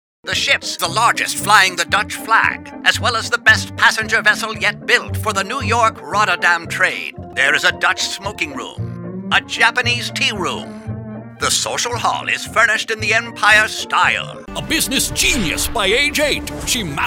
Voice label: OLD TIMEY RADIO ANNOUNCER SUPERHERO